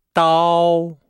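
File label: "dao", Chinese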